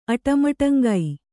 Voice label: "ಅಟಮಟಂಗೈ", Kannada

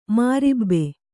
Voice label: "ಮೊರಬು", Kannada

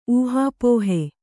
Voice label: "ಊಹಾಪೋಹೆ", Kannada

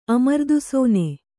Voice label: "ಅಮೃತಾಶನ", Kannada

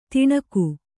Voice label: "ತಣುಕು", Kannada

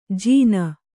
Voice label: "ಜಿನ", Kannada

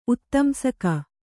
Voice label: "ಉತ್ತಂಸಕ", Kannada

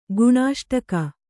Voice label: "ಗುಣಾಷ್ಟಕ", Kannada

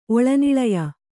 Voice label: "ಒಳನಿಳಯ", Kannada

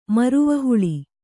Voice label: "ಮುರುವನಹುಳಿ", Kannada

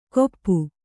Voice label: "ಕೊಪ್ಪು", Kannada

